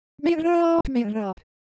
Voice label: Korean, Ideo Phones; Shape